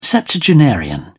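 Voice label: "septuagenarian", British English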